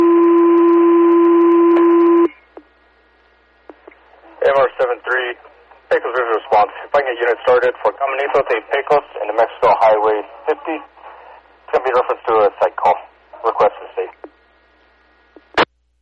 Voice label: dispatch call; fire; pecos